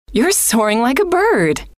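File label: you are soaring like a bird, female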